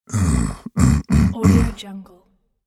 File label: deep sexy male voice um uh uh uh